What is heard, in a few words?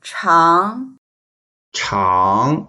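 "chang2", Mandarin Chinese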